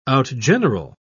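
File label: "outgeneral", British English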